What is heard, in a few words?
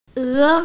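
"e2", Mandarin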